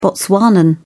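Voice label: "botswanan", British English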